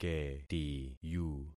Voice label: "ketiyu", concat syllables; Affixing experiment

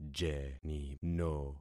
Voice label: "jenino", concat syllables; Affixing experiment

